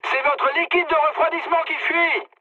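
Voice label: damaged water, mood high, French; War Thunder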